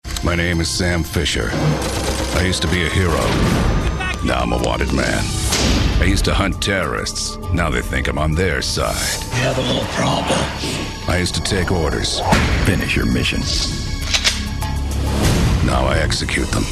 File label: movie trailers tough and gritty